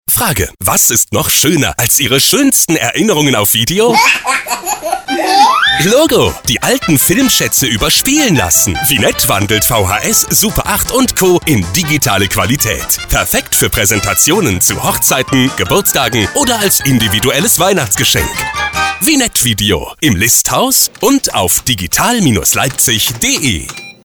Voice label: radio spot